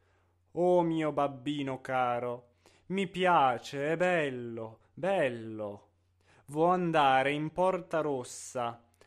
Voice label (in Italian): espressivo; Gianni Schicchi